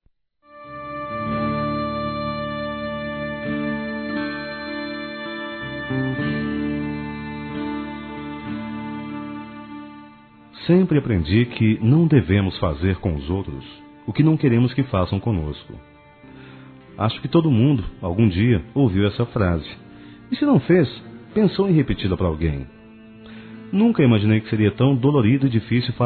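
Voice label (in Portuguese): estou magoado c você masculino; Telemensagens; Reconciliação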